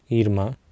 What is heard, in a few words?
"irma", Sanskrit